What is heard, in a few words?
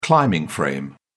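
"climbing frame", British English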